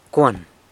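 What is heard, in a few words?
"cuan", San Lucas Quiaviní Zapotec; and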